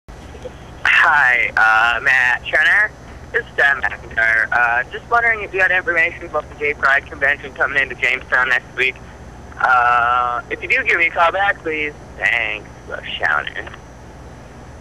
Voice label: Voicemails